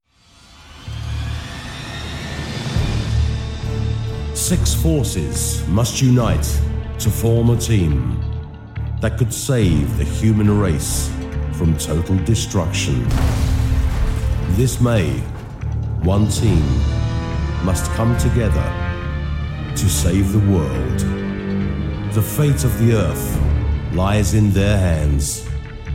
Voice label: english british movie trailers

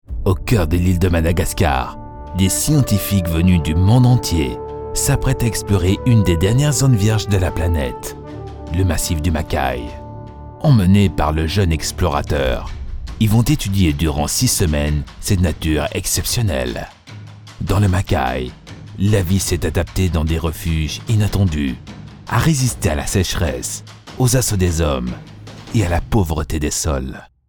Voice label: french narration

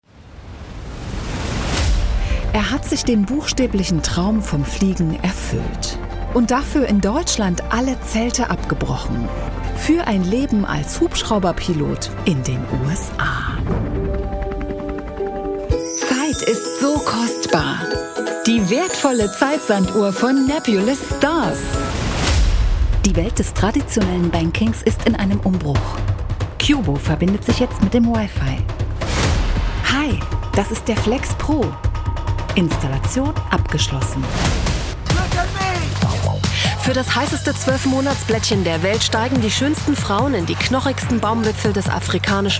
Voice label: german documentaries

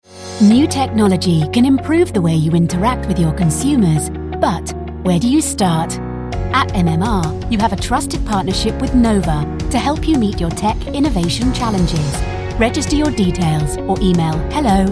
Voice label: english british narration

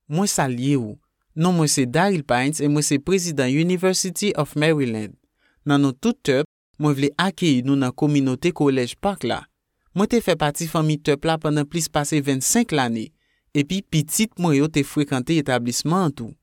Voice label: haitian creole narration

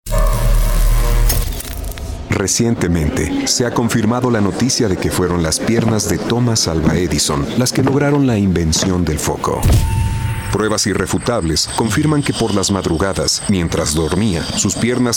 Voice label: spanish mexican documentaries

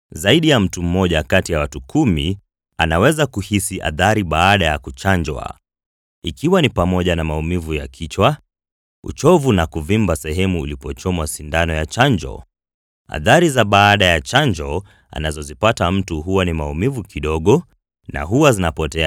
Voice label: swahili commercial; Kenya; native Swahili speaker from Nairobi

